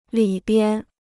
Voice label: "里边", Chinese

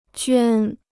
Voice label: "捐", Chinese

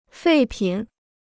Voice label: "废品", Chinese

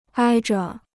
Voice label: "挨着", Chinese

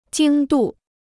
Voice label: "经度", Chinese

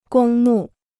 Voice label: "公墓", Chinese

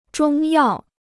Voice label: "中药", Chinese

